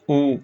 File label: short voyels arabic alphabet